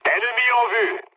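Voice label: French enemy detected mood low; War Thunder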